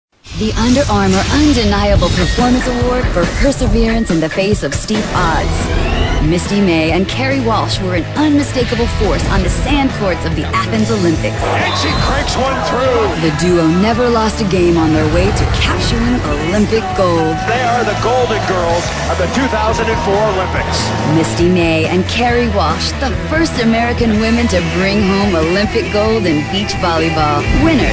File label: narration